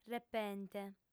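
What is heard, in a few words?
"repente", female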